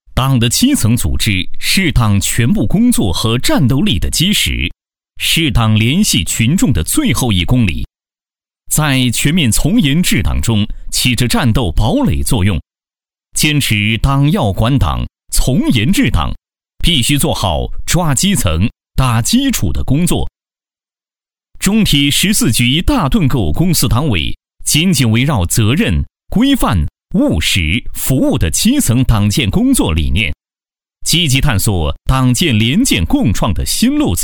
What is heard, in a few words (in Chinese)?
专题 党建 从严治党党建工作 稳重